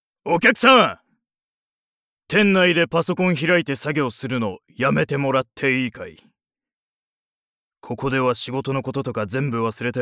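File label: voice sample, coffee shop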